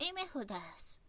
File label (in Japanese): zyosei imifudesu